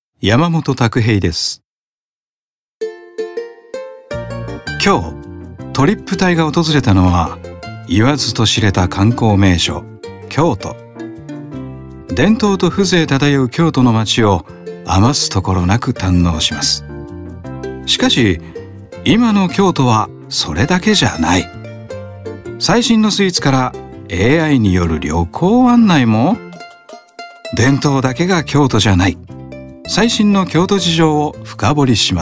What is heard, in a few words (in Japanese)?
ナチュラル